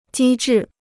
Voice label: "机智", Chinese

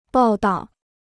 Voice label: "报到", Chinese

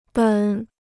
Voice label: "本", Chinese

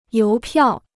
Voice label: "邮票", Chinese

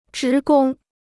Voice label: "职工", Chinese

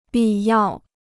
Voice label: "必要", Chinese

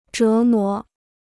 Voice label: "折磨", Chinese

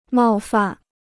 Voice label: "冒犯", Chinese

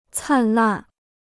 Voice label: "灿烂", Chinese

